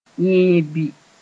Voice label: "yẽebɩ", Mooré